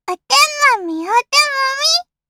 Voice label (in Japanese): System Voice 少女 新着メールの通知